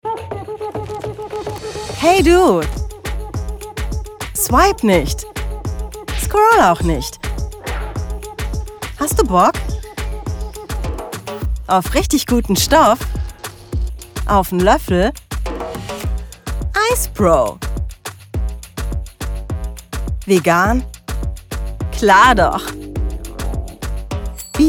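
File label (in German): werbung, Sprecherin; icebro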